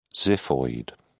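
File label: "xiphoid", British English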